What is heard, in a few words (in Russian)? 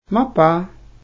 "медведь", nanai